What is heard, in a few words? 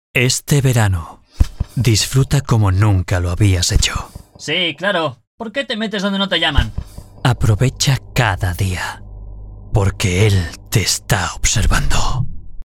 spanish movie trailers